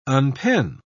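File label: "unpen", British English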